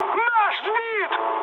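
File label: Russian aircraft destroyed mood high, speech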